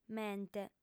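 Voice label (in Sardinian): "mente", Sardinian, female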